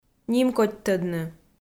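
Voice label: "нимкодьтӧдны", Komi, female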